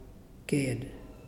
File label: path, Muyuw; Lae Unitech